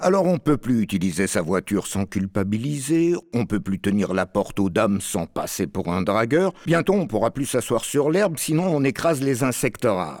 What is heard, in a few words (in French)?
le confit de canard radio Spot